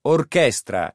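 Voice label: "orchestra", Italian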